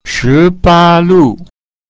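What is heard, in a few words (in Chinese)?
"shi ba lu", Mandarin Chinese pinyin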